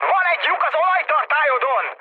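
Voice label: Hungarian damaged oil mood high, speech; War Thunder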